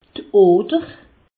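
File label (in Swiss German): "oder", Alsatian; medecine; artère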